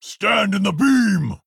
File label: Male Orc